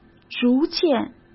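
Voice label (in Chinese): "逐渐", Mandarin Chinese; chinese flashcards; hsk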